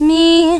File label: Solfege, Claire's Voice; Opcode Systems